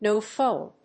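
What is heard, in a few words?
"No Phone", English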